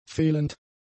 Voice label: "fehlend", german; adjectives